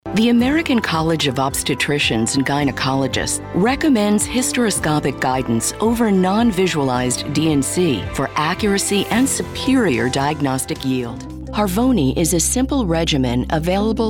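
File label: narration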